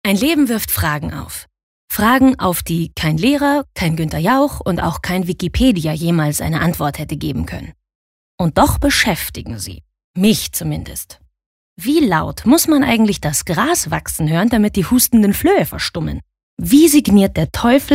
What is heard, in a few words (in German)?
Schauspiel Dynamisch